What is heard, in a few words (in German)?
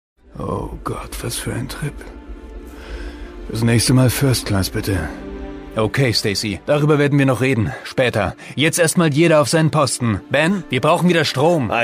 Schauspiel Variabel